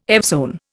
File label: "evzone", Indian